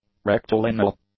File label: "rectilineal", English; adjectives